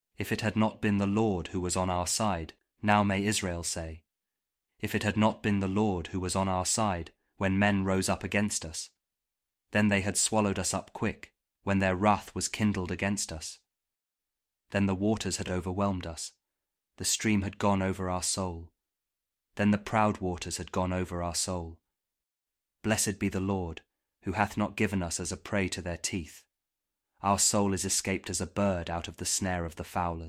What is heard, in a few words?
psalm kjv king james audio bible